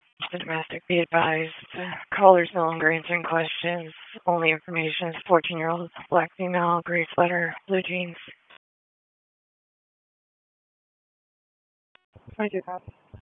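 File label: Police Northeast District Disp, radio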